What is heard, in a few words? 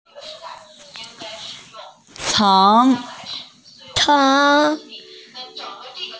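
"ceng2", Mandarin Chinese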